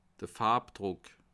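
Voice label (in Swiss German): "farbdruck", Bas Rhin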